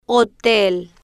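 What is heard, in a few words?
"hotel" Spanish, female, slow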